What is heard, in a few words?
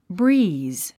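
"breeze", English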